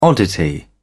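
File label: "oddity", English, British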